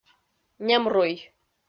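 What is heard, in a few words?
"немруй", Komi, female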